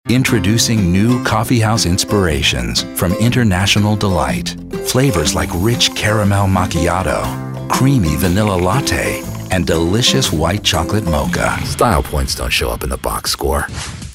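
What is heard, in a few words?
commercial, voice actor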